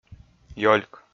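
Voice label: "ёльк", Komi, male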